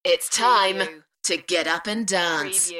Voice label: UK female